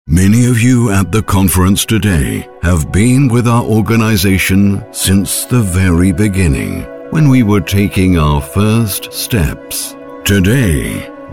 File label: ENG